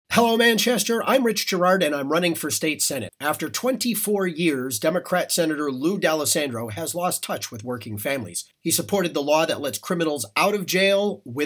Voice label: Radio Spot; Crime and Schools